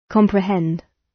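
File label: "comprehend", British; Language, linguistics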